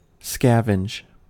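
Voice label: "scavenge", American English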